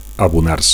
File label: "abonar", Catalan; to subscribe; to return a deposit